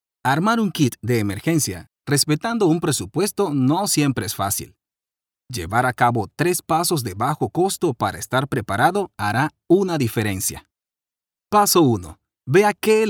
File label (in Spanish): Spanish Latin American e-learning